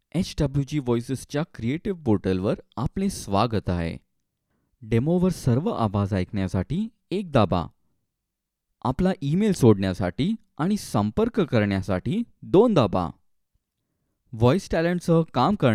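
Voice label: Marathi Male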